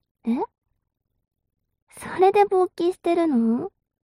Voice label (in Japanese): mob 女子 台詞